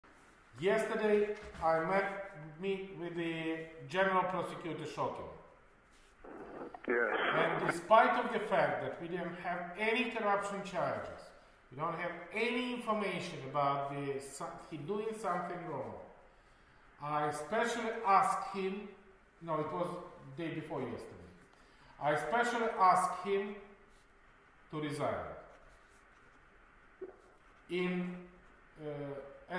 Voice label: telephone conversation; Joe Biden; Petro Poroshenko; telephone conversation discussing Shokin and Burisma